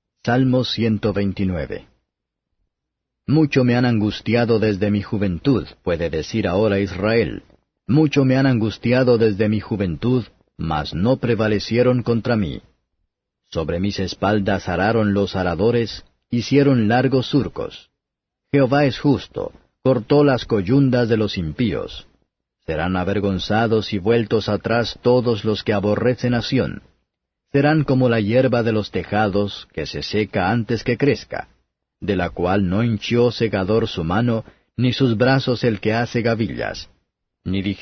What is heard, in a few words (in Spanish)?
salmos spanish